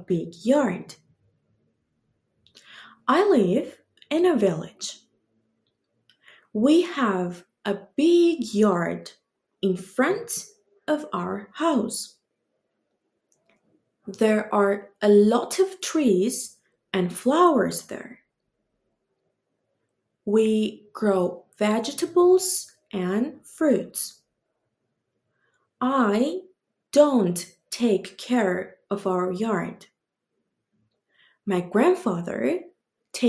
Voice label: conversation with accent from Armenia; “Do you have a big yard?”; Take care of, There are, Keep; Elllo